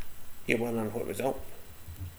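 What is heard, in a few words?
"wunnerin", slang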